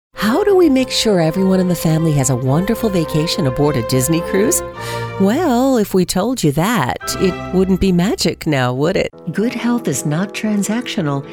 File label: Commercial